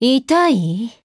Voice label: Voice JP; Loreley